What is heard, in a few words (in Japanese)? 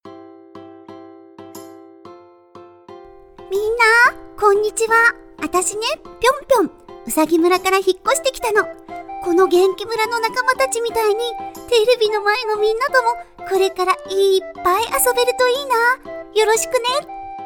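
ボイスサンプル（女の子キャラクター）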